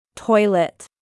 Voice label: "Toilet", English; bathroom